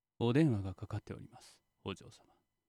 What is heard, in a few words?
butler phone